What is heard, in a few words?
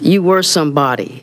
LoFi Phrases, LoFi Vocals; Vengeance Samples